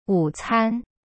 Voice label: "午餐" wu can, chinese

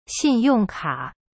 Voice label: "信用卡" xin yong ka, chinese; cyberchinese

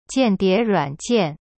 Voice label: "间谍软件 jian die ruan jian", Chinese; cyberchinese

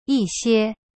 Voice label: "一些 yi xie", Chinese; cyberchinese

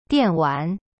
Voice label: "电玩" dian wan, chinese

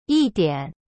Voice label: "一点" yi dian, chinese

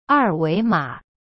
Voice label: "二维码", Chinese; er wei ma; cyberchinese

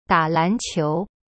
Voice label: "打篮球" da lan qiu, chinese; cyberchinese

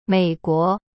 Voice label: "美国" mei guo, chinese

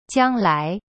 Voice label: "将来" jiang lai, chinese

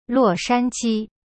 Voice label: "洛杉矶" luo shan ji, chinese

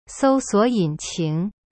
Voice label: "搜索引擎 sou suo yin qing", Chinese

